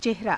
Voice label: "ceharaa", Hindi